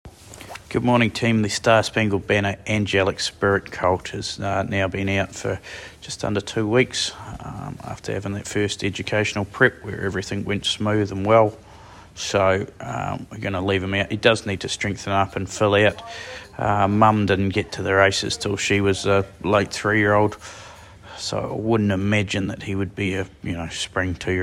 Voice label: voice note; Starspangledbanner